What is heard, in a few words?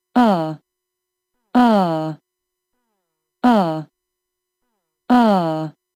British pronunciation Are